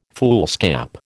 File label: "foolscap", American